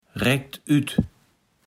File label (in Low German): "recktütt", Platt